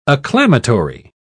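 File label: "acclamatory", British English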